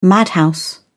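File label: "madhouse", British English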